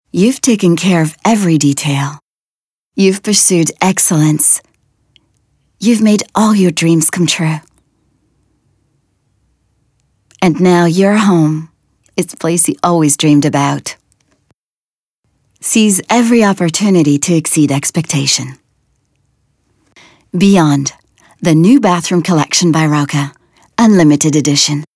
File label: female non native english dreams comercial; ideasonora